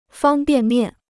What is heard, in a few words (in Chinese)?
"方便面", Chinese